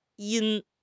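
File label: "yin1", Mandarin Chinese; HSK2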